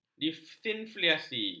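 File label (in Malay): "disinflasi", Malay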